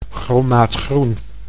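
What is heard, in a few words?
"chromaatgroen", Dutch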